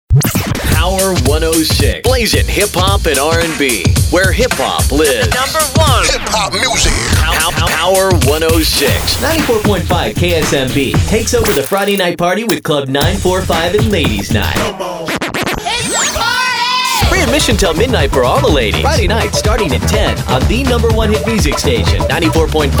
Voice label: Radio

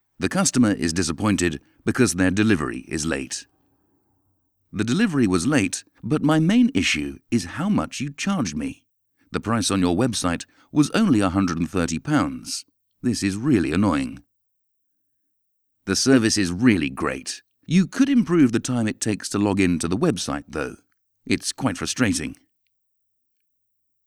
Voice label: Instructional

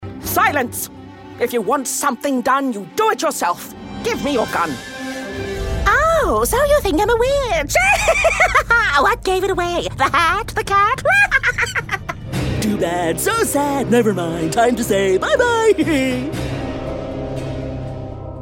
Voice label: old baddies cartoon

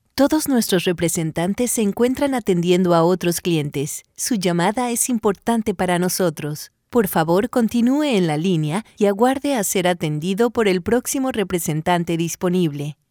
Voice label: IVR

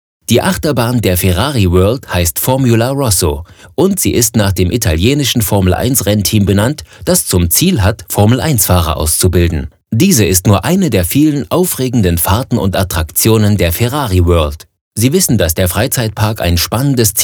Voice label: Audioguide; Ferrari World

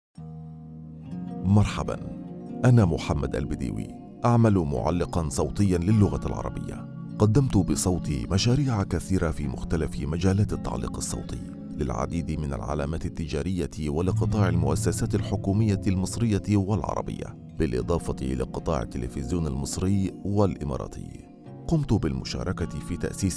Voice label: Presentation Arabic voice over

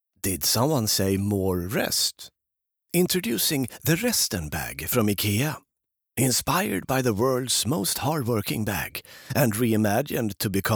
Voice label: Swedish accent; IKEA Resten

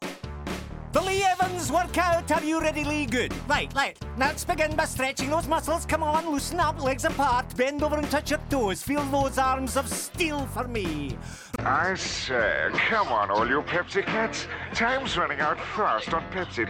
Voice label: Character and Cartoon

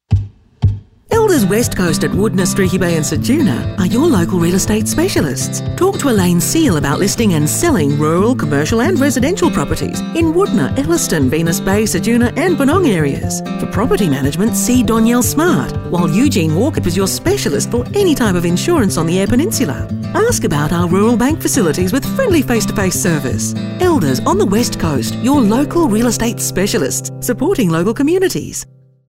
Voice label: regional radio ad

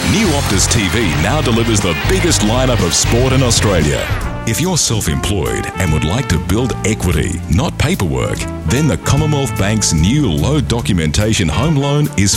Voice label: commercials; ASIC; Carnival Cruise Lines; Amber Tiles; Bing Lee

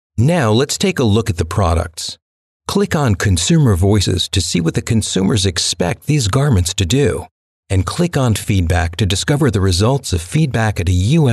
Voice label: e-Learning Sample

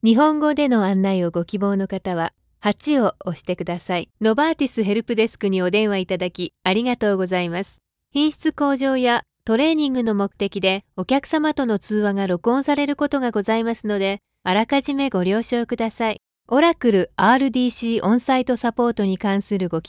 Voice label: Japanese IVR Recordings

